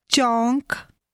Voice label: intersection, punjabi; English: intersection/ road junction

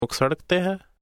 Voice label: main phrase, punjabi; English: main

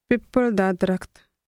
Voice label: sacred fig tree, punjabi; English: sacred fig tree

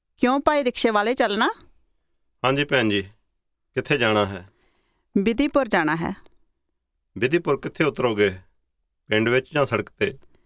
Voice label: rickshaw driver, punjabi

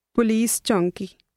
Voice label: police post, punjabi; English: police post

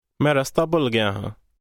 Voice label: to forget phrase, punjabi; English: to forget

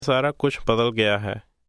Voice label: change phrase, punjabi; English: change

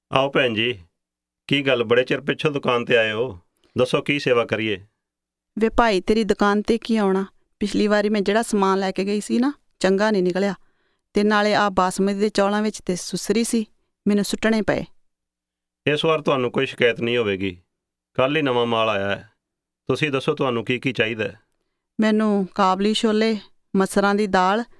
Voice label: at the grocer, punjabi

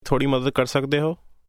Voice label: help phrase, punjabi; English: help

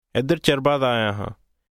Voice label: after a long time phrase, punjabi

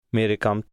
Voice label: work phrase, punjabi

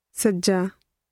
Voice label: right, punjabi

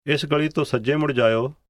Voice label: stree phrase, punjabi; English: street

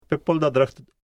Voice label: sacred fig tree phrase, punjabi

